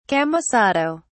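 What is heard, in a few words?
"camisado", British English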